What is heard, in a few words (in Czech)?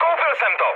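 Czech aircraft destroyed, mood medium; speech; War Thunder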